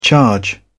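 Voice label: "charge", British English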